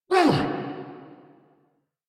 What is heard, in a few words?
Pain, en